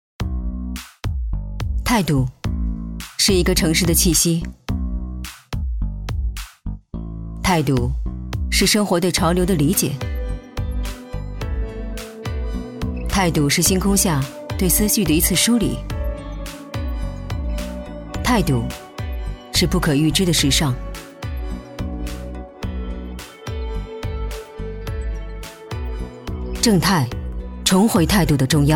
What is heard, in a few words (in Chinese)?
电视广告, 个性酷酷; 时尚品牌